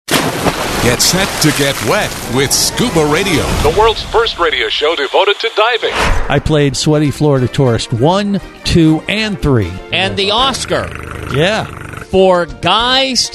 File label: Promo